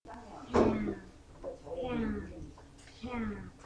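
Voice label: "can", spoken word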